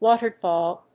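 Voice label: "Water Dog", English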